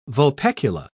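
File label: "vulpecular", British English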